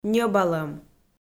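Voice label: "нӧбалӧм", Komi, female; kpv; audio dict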